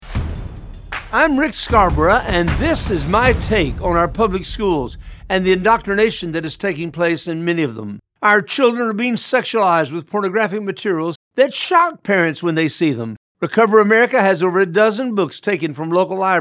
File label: Radio Spot; Public Schools